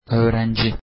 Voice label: student, turkish